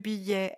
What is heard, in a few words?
"billet", French